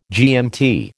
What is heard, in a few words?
"GMT", American